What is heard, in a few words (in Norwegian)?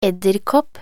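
"edderkopp", Norwegian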